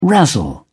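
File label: "razzle", British English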